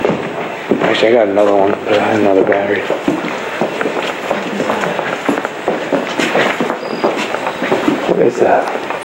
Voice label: Female voice; Tongue Room